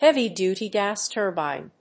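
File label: "heavy duty gas turbine", English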